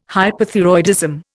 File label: "hyperthyroidism", Indian